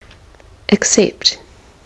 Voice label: "except", kiwi english